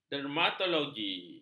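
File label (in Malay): "dermatologi", Malay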